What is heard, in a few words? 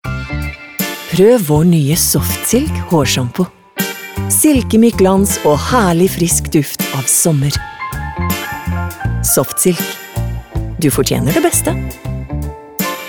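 Norwegian softsell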